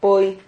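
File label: "poy", Spanish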